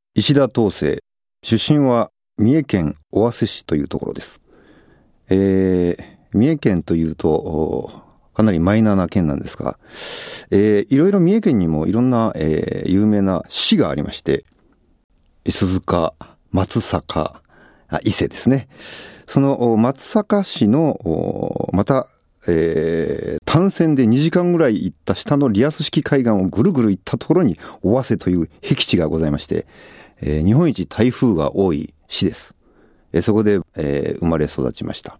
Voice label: freetalk, male voice

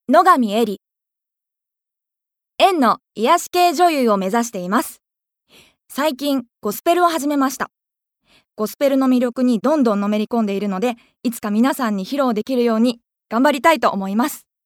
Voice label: free talk, female voice

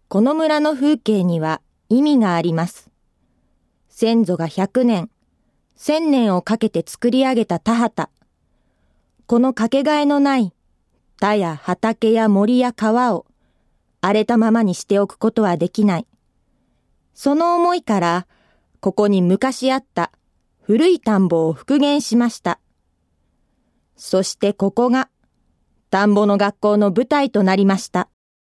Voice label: female voice

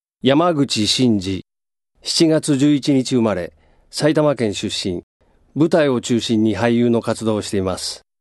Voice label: free talk, male voice

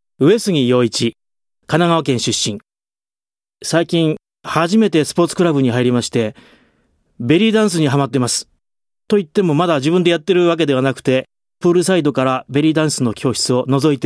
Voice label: freetalk, male voice

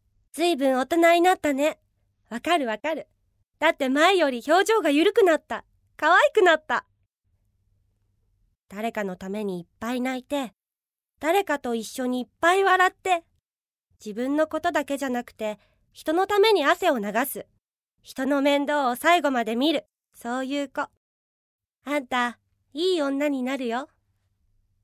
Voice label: female voice

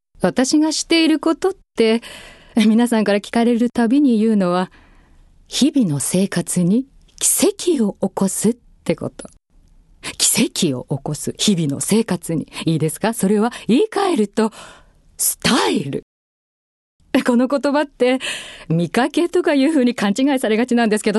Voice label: female voice

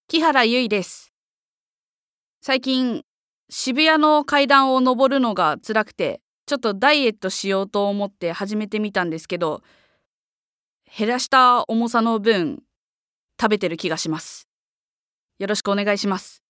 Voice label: free talk, female voice

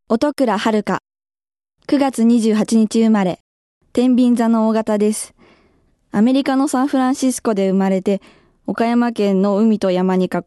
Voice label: female voice freetalk

